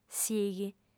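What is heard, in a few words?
"sighi", female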